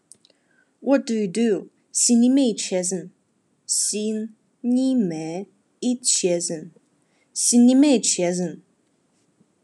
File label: "What do you do?", Khakas